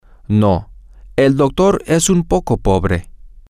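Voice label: no the doctor mr lopez is a little poor, spanish, male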